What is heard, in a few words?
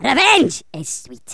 REVENGE, Angry Scots; Worms Armageddon